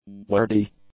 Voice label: "wordy", english; adjectives